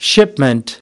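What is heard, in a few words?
"shipment", spoken word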